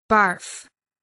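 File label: "barf", English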